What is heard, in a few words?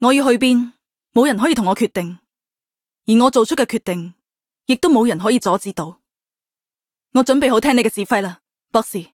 Cantonese; Hoshiguma